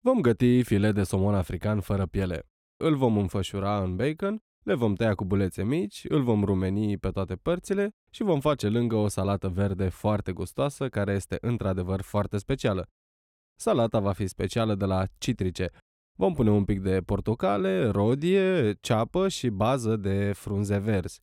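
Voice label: romanian male narration; voice demos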